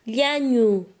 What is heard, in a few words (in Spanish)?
"delgado", Quechua Chanka